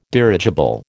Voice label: "dirigible", American